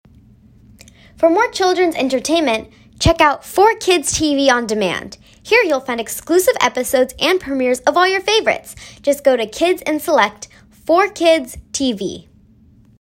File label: Youth